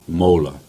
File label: Cornish; Mawla